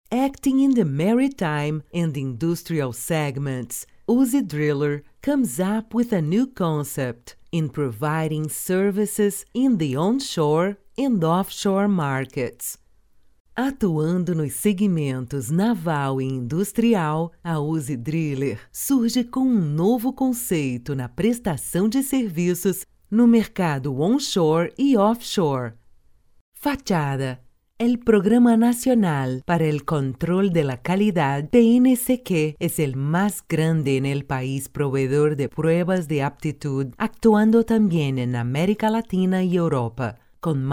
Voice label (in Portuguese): voz locutora trilingue